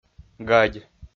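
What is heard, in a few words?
"гадь", Komi-Zyrian, male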